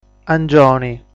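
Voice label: "Angioni", Sardinian word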